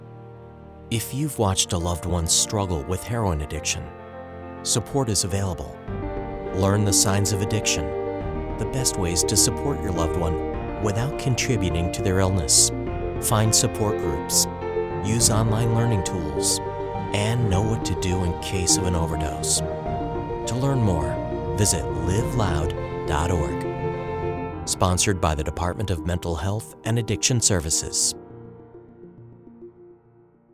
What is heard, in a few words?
male spot